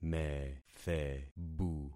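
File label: "methebu", concatenated syllables; Affixing experiment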